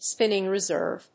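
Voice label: "spinning reserve", English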